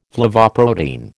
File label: "flavoprotein", American